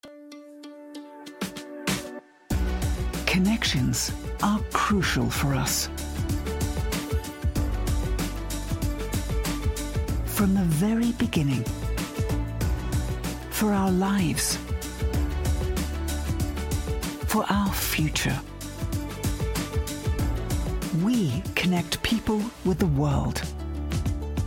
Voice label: Imagefilm eng